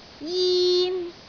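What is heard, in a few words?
"jin1", Cantonese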